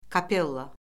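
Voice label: "kapella", Azerbaijani; Orfoqrafiya Lüğəti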